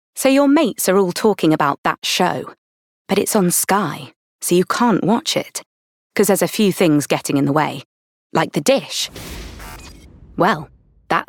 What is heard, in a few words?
Commercial